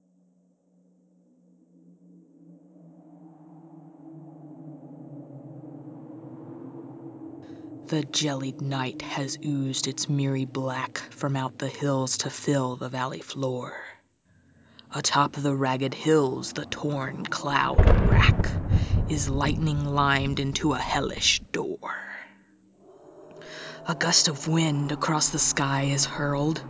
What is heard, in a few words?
reading poetry; Lycanthropus; [Halloween]; [warewolf]